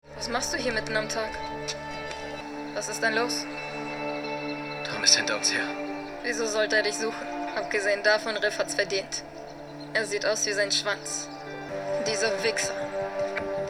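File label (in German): wütend verletzt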